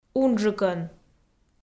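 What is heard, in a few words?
"унджыкӧн", Komi, female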